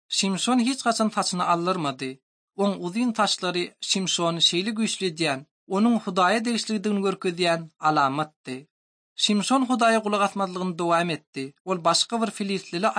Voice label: turkmen, ot drama